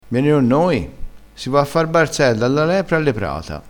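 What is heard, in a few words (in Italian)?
"barzello", vocabolario dialettale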